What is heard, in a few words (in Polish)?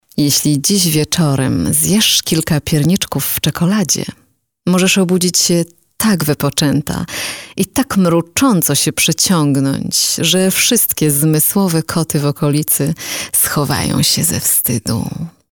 F AUDIOBOOK